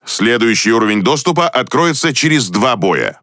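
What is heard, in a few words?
Russian Speech Access Level Unlock; World Of Warships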